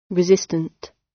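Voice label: "resistant" British; Flora and fauna, agriculture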